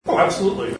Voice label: "Oh absolutely", taunt